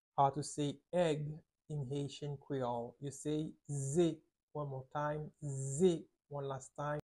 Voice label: How to say Egg in Haitian Creole Ze pronunciation by a native Haitian tutor